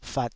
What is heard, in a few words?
"fat3", taiwanese syllables